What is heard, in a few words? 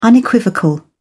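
"unequivocal", British English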